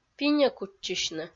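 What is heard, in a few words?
"пиньо кутчысьны", Komi, female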